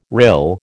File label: "rill", American